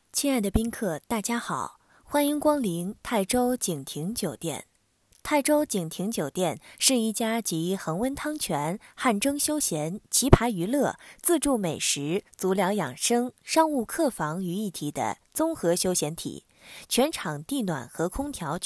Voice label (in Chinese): 酒店广告